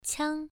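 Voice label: "qiang", Mandarin Chinese syllable, first tone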